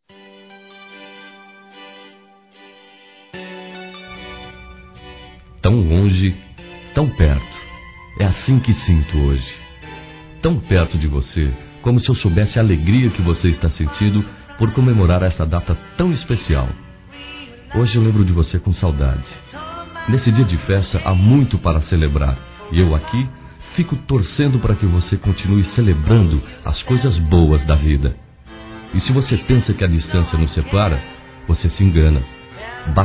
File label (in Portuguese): Amigo (a) Distante Masculino; Aniversários; Aniversários diversos